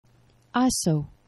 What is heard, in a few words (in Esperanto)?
"aso", esperanto; geneva